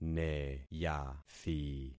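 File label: "neyathi", concatenated syllables; Affixing experiment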